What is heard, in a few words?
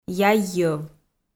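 "яй-йӧв", Komi, female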